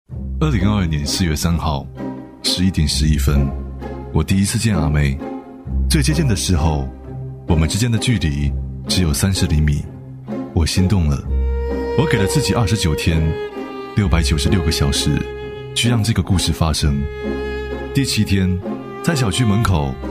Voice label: 男 台湾广告片

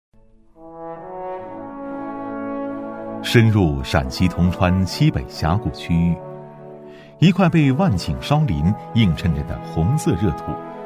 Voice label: 男 纪录片 红色革命根据地 深情讲解; 稳重磁性; 深情感人

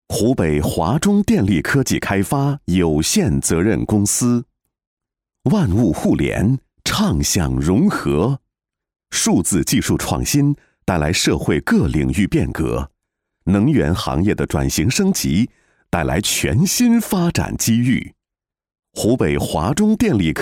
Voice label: 男 企业宣传 大气磁性; 高端质感 大气浑厚

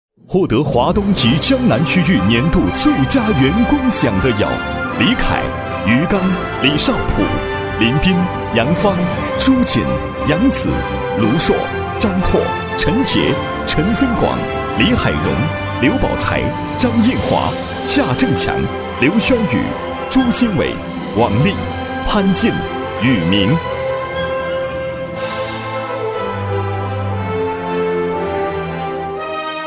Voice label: 男 大气颁奖 获得颁奖的是 大气豪迈; 厚重磁性 大气浑厚; 男135-大气颁奖【获得颁奖的是 大气豪迈】

